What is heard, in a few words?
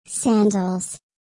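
"sandals", English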